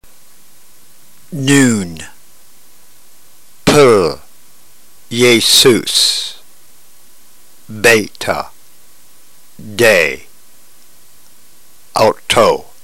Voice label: drill, ntgreek